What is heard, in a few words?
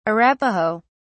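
"arapaho", British English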